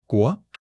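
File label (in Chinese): "国", Mandarin Chinese; HSK1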